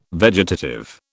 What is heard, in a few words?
"vegetative", British